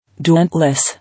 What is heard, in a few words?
"Dauntless", English